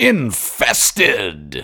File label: Infested Exclaim Voiceover